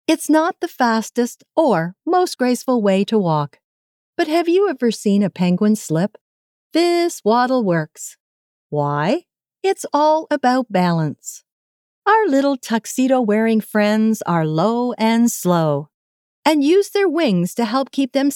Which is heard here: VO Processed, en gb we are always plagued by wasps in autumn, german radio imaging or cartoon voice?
VO Processed